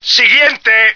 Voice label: next, male; Marisa the Magician

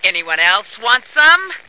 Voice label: "want some", female

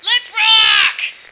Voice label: let's rock, female

